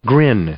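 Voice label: "grin", English, male; verb; i-ɪ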